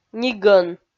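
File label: "нигӧн", Komi, female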